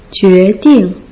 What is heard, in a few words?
"jue ding", Putonghua